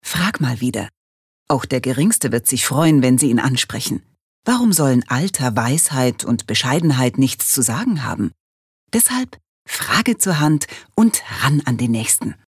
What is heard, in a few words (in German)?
Werbung Freundlich